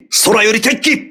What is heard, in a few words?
voice message air alert, crew ships japanese